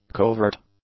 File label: "covert", English; adjectives